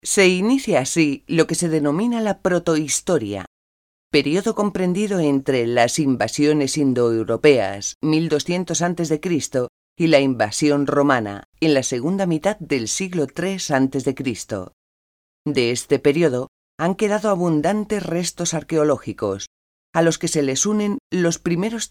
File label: spanish female voiceover